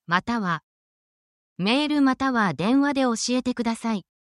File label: essential japanese connectors